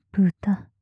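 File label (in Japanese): "豚" Japanese, 強く, 極近, 右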